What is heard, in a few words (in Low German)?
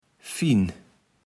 "Fien", Platt